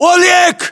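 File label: "oleg", battlechatter, Russian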